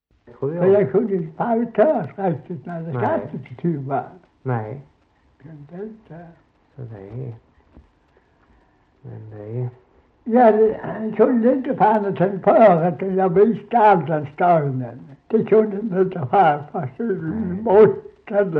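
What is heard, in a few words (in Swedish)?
Slättåkra Halland, dialekter svenska sagor; Folkminnen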